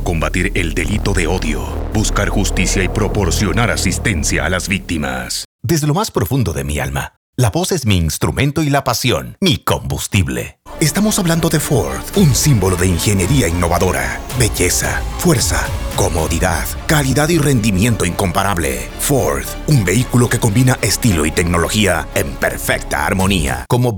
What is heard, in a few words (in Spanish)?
voz comercial; DJ Drops